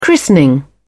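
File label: "christening", British English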